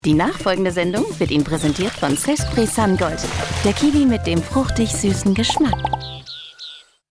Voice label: sponsoring opener; zespri